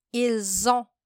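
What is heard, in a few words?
"Ils ont", French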